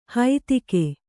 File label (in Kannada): "ಹೈತಿಗೆ", Kannada